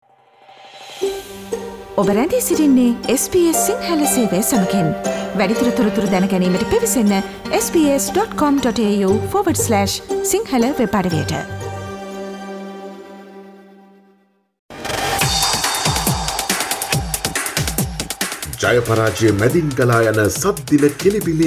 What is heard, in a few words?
sinhalese; SBS Sinhala; Weekly Sports Wrap; Dickwella may stay in Sri Lanka when Mendis and Gunathilake planning to play cricket in USA